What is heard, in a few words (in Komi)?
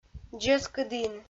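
"дзескыдін", Komi, female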